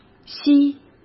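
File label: "稀", Mandarin Chinese; chinese flashcards; hsk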